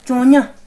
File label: twelve, kham